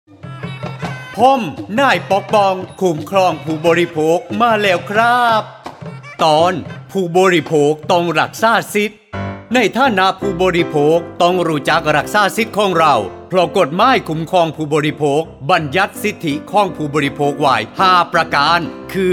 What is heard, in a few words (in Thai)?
สปอตวิทยุ สคบ. ภาคใต้